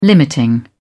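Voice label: "limiting", British English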